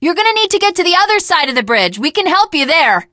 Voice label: initial meeting, teen girl

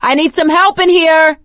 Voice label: call for rescue, survivor voice; source engine; left 4 dead 2; producer

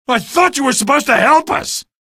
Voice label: evac casualty, male; video games; npc; source engine; episodic; episode 1

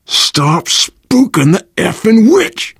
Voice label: witch getting angry, survivor voice; source engine; left 4 dead 2

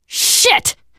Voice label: swear, teengirl; voice; source engine; left 4 dead 2; survivor

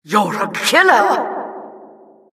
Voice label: announcer, vo, killstreak

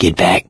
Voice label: backup quiet, survivor voice mechanic; source engine; left 4 dead 2

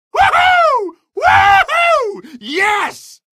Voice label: cheer, male; hl2; coast; odessa; Source engine

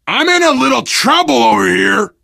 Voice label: help, player survivor voice biker

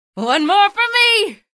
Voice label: kill, female; episodic; episode 1; Source engine

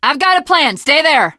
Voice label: jumping off bridge, teengirl; video game; Left 4 Dead; Source Engine; survivor

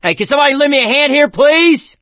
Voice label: call for rescue, survivor voice

